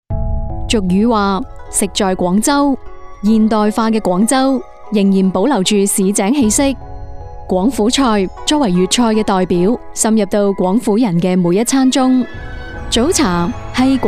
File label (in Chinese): 专题 配音